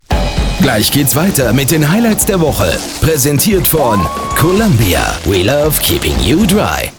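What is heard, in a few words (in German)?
Spots, Männer; Columbia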